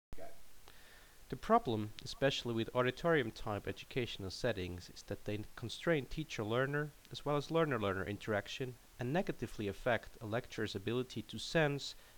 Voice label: Narration